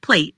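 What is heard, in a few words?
"Plate", English; restaurants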